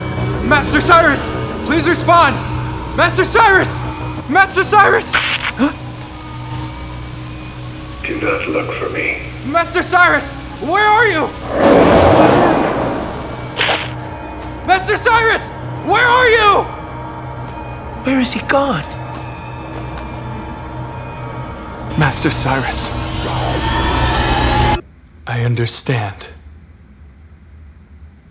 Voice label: voiceover; pokemon

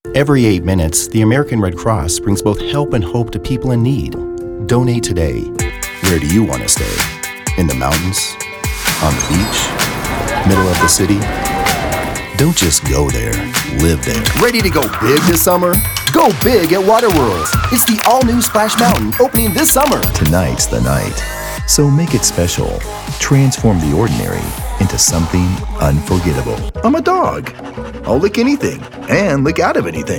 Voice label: COMMERCIAL

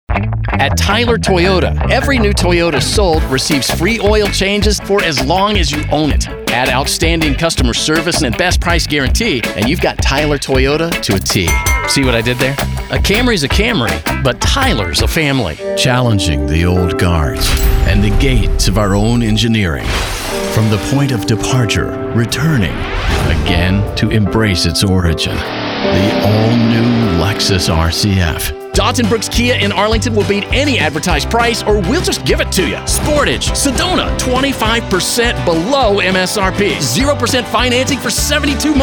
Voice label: Automotive Commercial

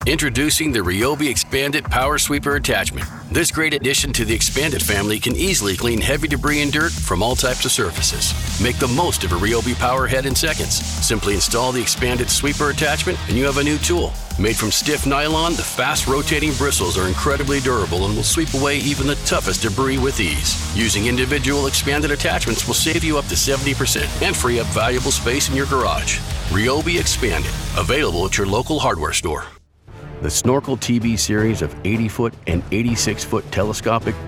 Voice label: explainer

